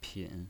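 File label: "pin" third tone, pinyin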